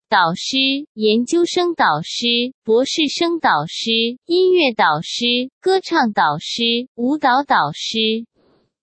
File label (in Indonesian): mentor atau pembimbing guru dalam bahasa mandarin